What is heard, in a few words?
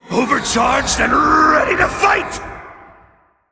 Male Elemental Play